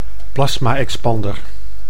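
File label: "plasma-expander", Dutch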